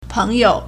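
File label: "peng2you", Mandarin Chinese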